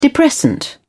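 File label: "depressant", English, British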